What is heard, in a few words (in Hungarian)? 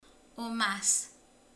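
"hús", Hungarian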